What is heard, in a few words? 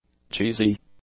"cheesy", English; adjectives